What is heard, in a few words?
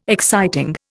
"exciting", Indian